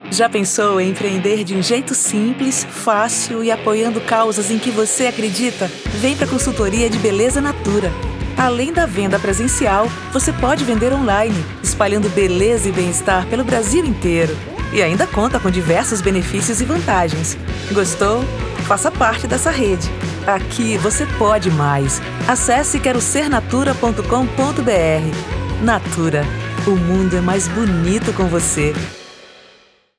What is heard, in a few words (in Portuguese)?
Spot; Natura